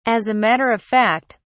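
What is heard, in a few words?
"as a matter of fact", British English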